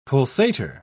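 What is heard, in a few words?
"pulsator", British English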